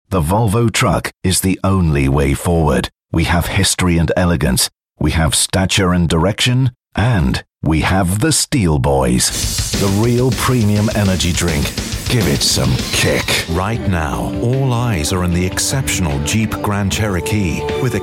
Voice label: gritty and deep

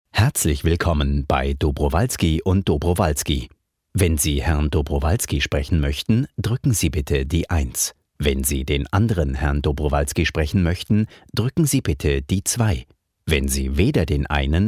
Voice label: german phone prompts

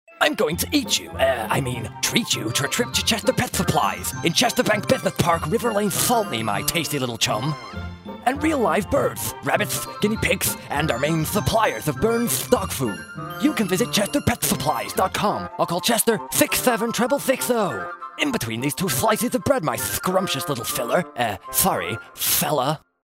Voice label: cartoon cat

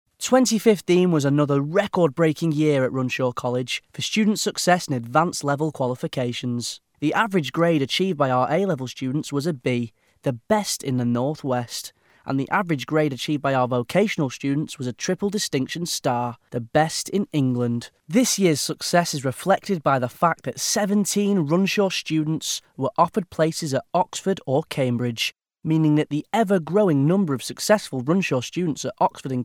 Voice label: narration

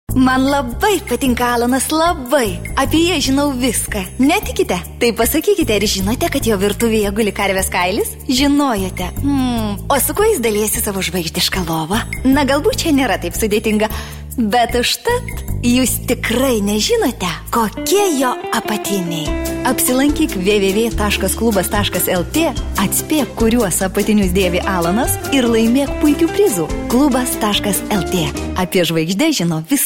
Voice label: lithuanian

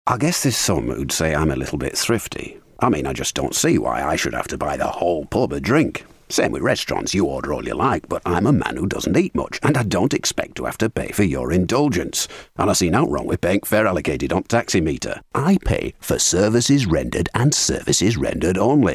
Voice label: yorkshire, leeds accent

